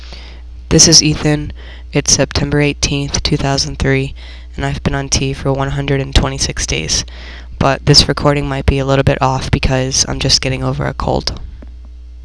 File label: 126 days, testosterone, voiceclips; transition